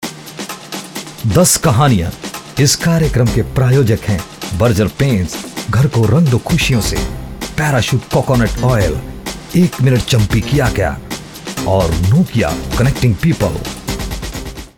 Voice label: promo, male voice

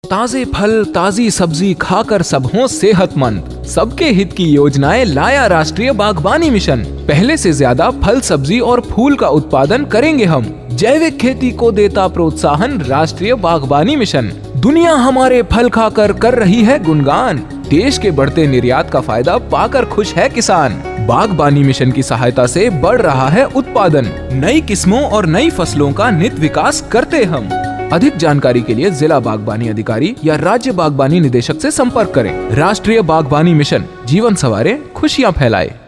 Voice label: mission ad, male voice; BAGHBANI

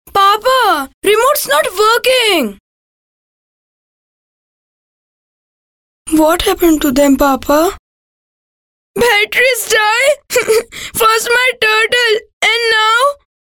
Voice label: gujrati son, male voice

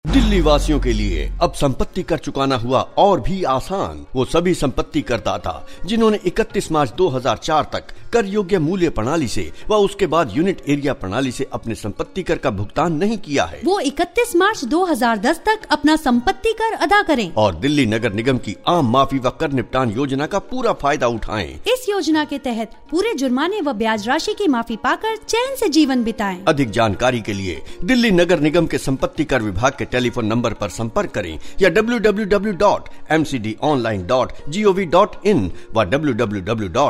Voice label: PROPERTY TAX, male voice

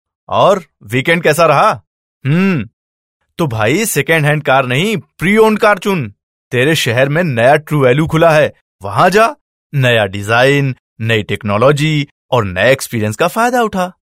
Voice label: hindi character, male voice; True value

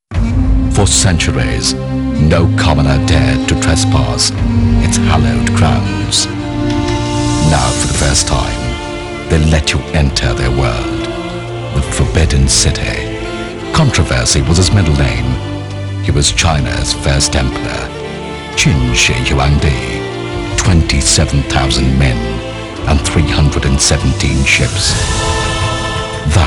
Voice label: british sample Promo, male voice; NGC; Enter The Dragon

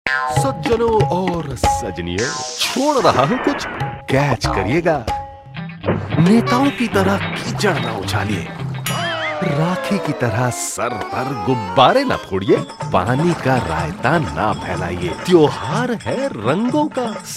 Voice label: Character hindi, male voice

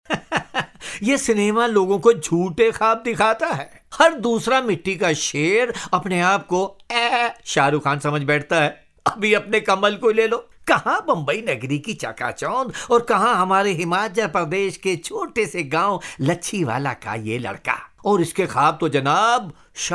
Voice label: sample, male voice

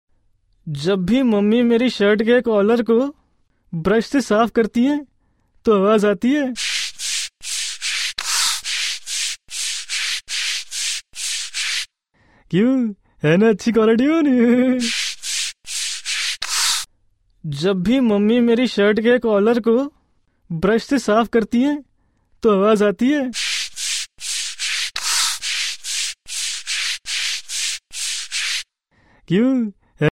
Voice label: Character, male voice

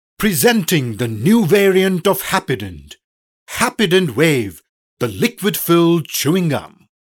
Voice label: eng, male voice

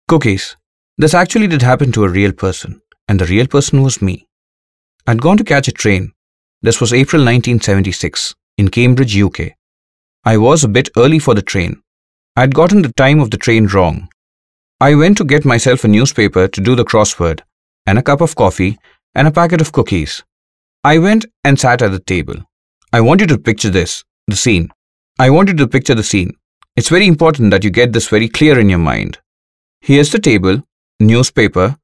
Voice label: English Sample, male voice

